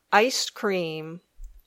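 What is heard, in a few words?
"ice-cream", English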